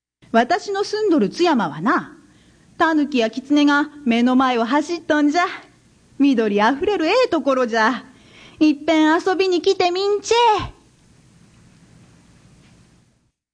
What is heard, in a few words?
dialect